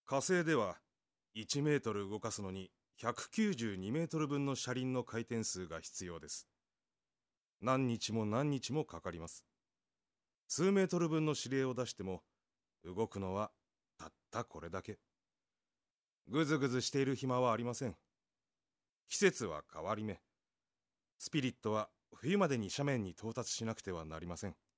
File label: male voice